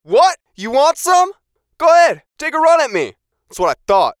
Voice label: VOICE COMICAL; What You Want Some; Radio Voice; Production Element, Imaging Element, Accent, Transition; Blastwave